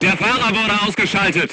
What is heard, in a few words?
voice message driver contused, crew tanks german